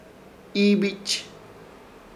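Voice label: "Iibich", Chamacoco word, vapor; Ishir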